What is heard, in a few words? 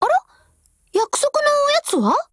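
OPERATION OVER, Japanese; GF Alarm; UMP9Mod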